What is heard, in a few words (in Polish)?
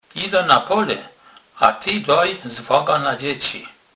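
"dac zwage", Kurpie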